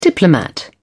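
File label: "diplomat", British English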